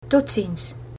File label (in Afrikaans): goodbye, afrikaans